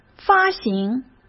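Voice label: "发行", Mandarin Chinese; chinese-flashcards; hsk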